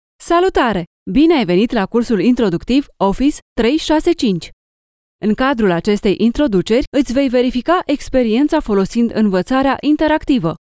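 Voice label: Romanian, E-Learning; GoLocalise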